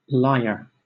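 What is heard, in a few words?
"lyre", British English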